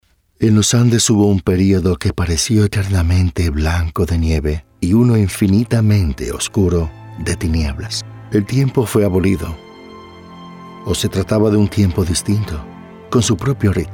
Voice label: Audiobook LATAM; We Have to Survive